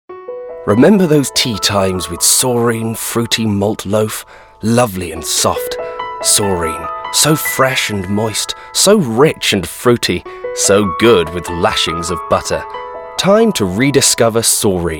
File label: Commercial